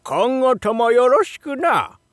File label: shop oldman, voice